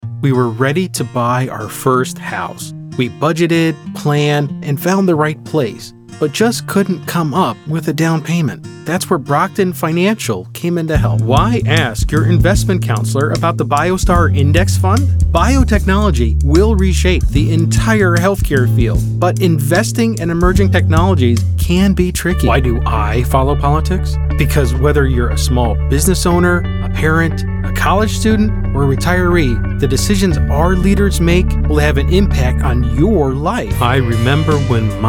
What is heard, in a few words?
commercial; voice over